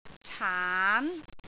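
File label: "caan2", Cantonese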